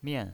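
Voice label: "mian", pinyin voice, Mandarin Chinese, fourth tone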